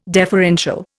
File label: "deferential", Indian